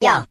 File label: "yo", Voice